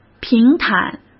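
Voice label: "平坦", Mandarin Chinese; hsk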